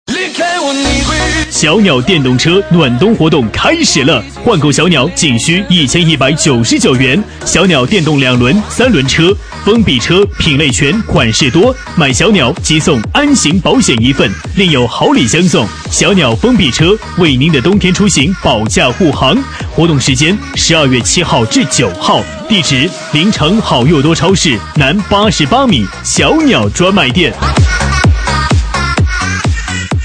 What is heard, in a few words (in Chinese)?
男 促销 电动车, 促销男配; 小鸟电动车